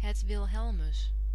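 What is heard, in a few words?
"Het Wilhelmus", Dutch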